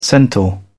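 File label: "centaur", British English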